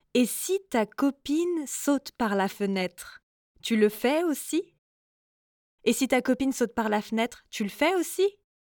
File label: french mom phrases